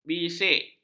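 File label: "bisik", Malay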